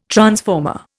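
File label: "transformer", Indian English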